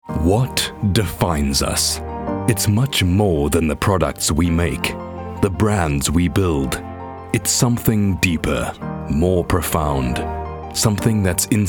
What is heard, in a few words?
Corporate Intro; ExxonMobil SOE